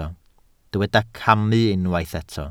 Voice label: "camu", welsh